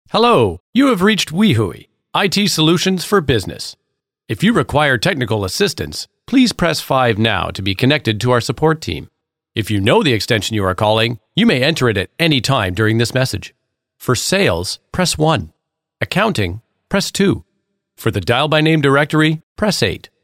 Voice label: Phone Menu